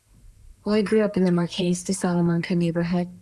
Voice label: Voice Acting, es, F0

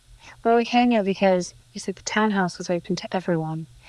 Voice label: Voice Acting es

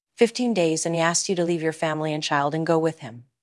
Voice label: Voice Acting es

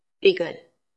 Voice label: VoiceActing es

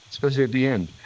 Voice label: Voice Acting, es

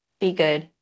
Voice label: Voice Acting es

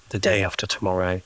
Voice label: Voice Acting, es

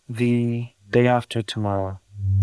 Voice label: Voice Acting es M

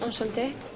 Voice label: Voice Acting es F

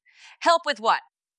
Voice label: Voice Acting es F

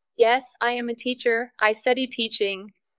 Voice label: VoiceActing es F

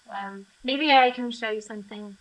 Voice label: Voice Acting, es, F0

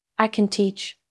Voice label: Voice Acting es

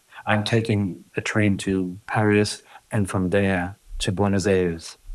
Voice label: Voice Acting, es, M

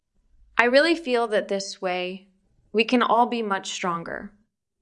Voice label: Voice Acting es F

